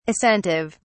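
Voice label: "assentive", British English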